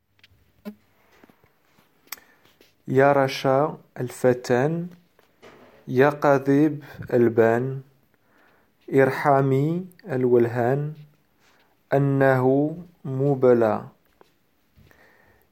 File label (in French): ya rasha prononciation